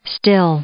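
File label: "still", English, female; adverb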